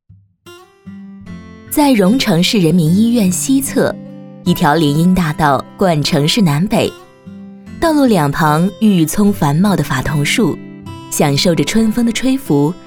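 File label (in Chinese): 国语 女声 专题片【亲切讲述解说】 大气浑厚磁性 沉稳 积极向上 亲切甜美; 丰盛礼品烟酒店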